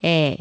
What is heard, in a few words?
"에", korean; vowel